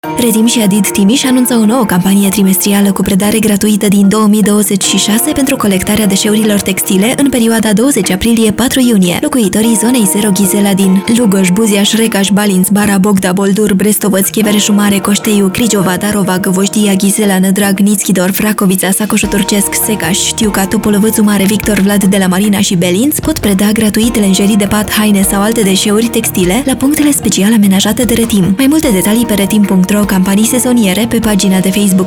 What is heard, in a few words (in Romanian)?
Spot radio; Textile; Zona 0; campanie colectare zona 0 ghizela